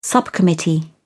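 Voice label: "subcommittee", British English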